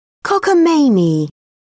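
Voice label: "cockamamie", British English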